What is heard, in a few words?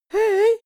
boy shy "hey"